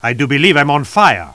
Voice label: chat; tf2 spy